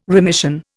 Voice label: "remission", Indian